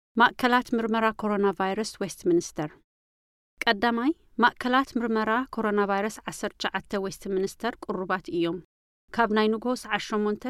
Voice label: tigrinya coronavirus testing centres in westminster